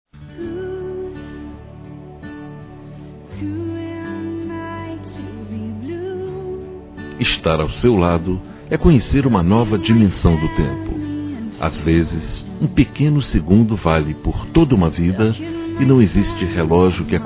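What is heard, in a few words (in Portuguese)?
Namoro Masculino; Aniversários; ANIVERSARIO romantico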